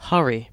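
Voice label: "hurry", British English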